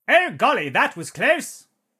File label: battle chatter